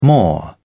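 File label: "more", British English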